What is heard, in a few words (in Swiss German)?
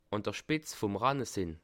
"spitz", alsace